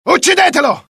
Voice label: alert, dialogue IT; mohaa; attack